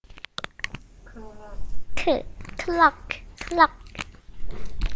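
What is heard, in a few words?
"clock", spoken word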